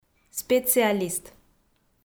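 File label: "специалист", Komi, female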